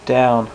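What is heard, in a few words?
"down", male, voice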